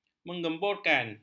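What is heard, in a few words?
"menggemburkan", Malay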